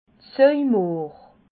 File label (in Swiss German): "sojmohr", Alsace